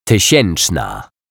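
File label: "tysieczna", Polish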